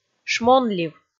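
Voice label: "шмонлив", Komi, female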